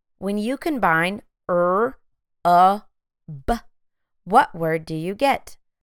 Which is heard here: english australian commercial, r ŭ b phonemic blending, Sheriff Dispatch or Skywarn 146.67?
r ŭ b phonemic blending